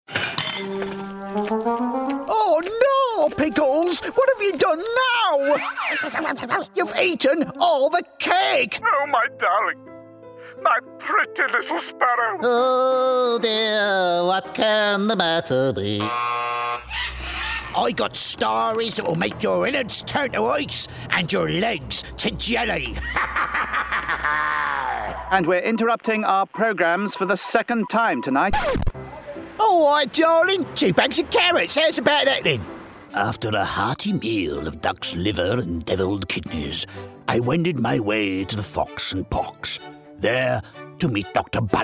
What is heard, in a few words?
Animation; Voicereel